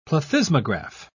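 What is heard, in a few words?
"plethysmograph", British English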